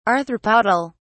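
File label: "arthropodal", English, British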